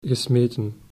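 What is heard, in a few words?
"gesmeten", Dutch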